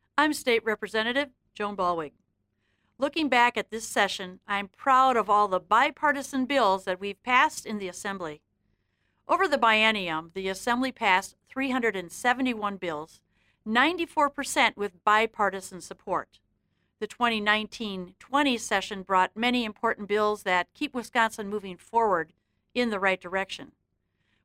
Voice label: Radio Address; Ballweg